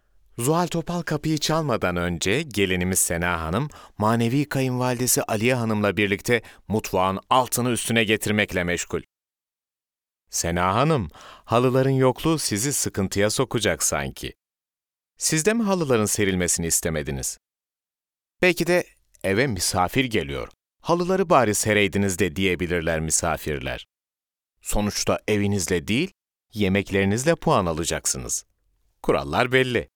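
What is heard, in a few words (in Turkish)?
tanitim, seslendirme; belgesel tarihi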